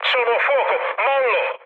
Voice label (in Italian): on fire, mood low, speech it; War Thunder